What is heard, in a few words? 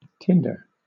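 "tinder", British English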